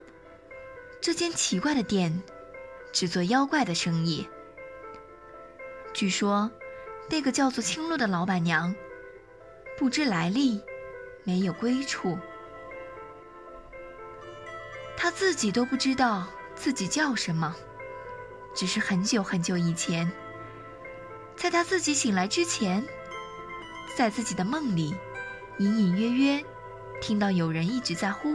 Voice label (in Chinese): 小说; 妖怪酒馆